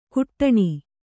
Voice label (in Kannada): "ಕುಟ್ಟಣಿ", Kannada